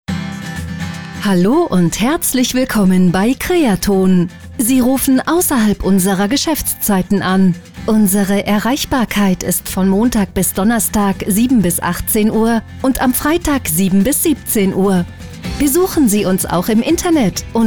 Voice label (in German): Telefonansage Telefonsounds; creaton